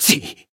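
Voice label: damaged, Japanese; claude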